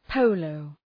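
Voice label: "polo", English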